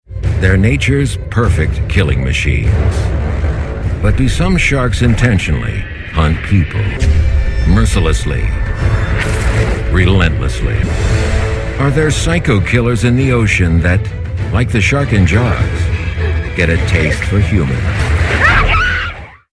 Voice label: narration

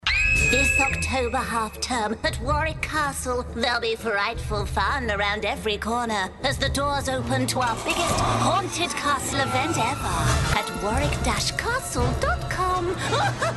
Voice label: scarey

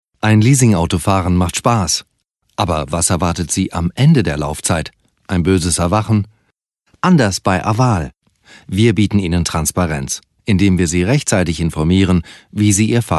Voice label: german soft sell

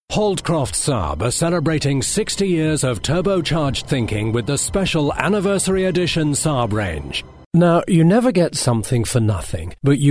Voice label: commercial

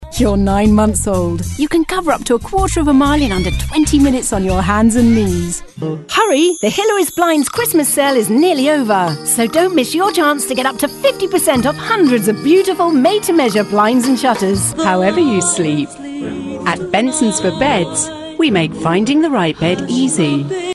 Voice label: commercial

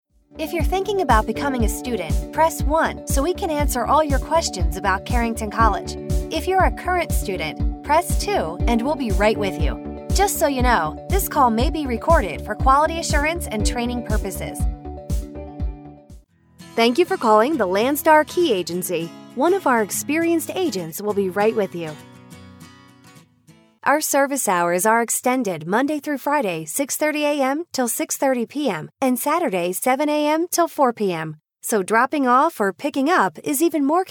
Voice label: telephone

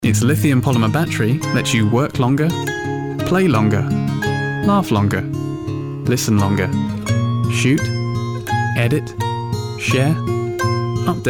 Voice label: Friendly

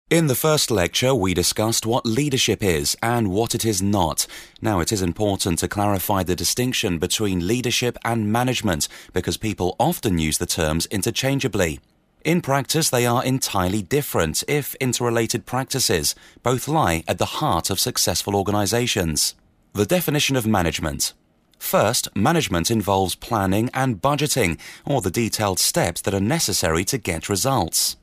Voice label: corporate

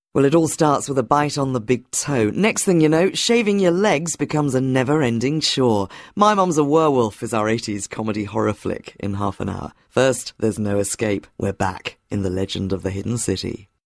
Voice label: continuity

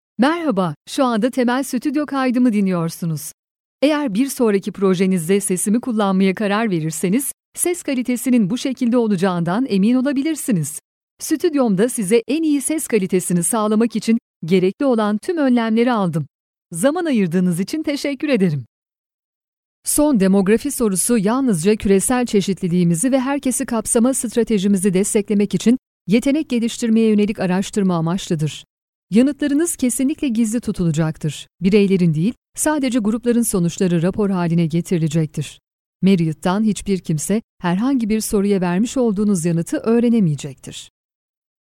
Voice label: generic turkish

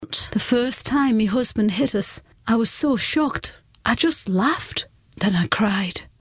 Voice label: newcastle accent

